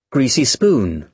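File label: "greasy spoon", British English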